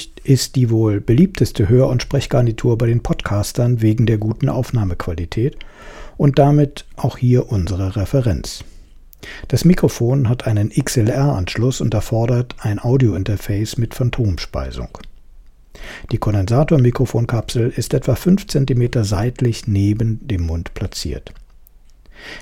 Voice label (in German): Mikrofontest Beyerdynamic DT297